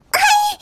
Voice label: "ehai" G#5; 音MAD; 偶像大师; 灰姑娘